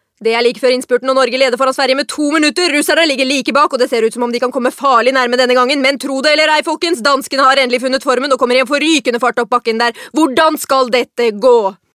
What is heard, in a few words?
no dub commentator